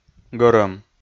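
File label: "гӧрӧм", Komi-Zyrian, male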